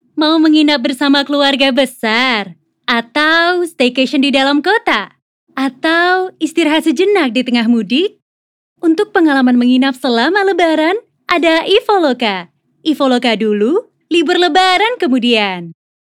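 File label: casual Indonesian; The light tone she possesses brightens up the listeners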